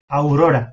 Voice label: "aurora" Latin

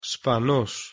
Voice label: "spanos", Modern Greek

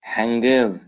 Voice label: "hengiv", Kurdish

